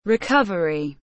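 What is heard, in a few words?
"recovery", English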